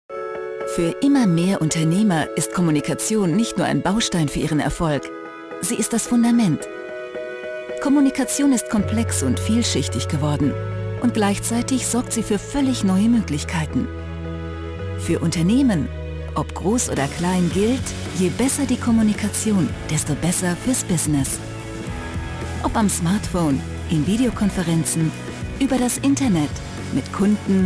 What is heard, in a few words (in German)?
Imagefilm; O2 Business